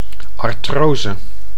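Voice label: "artrose", Dutch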